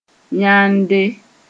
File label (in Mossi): "yãnde", moore